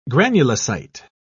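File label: "granulocyte", British English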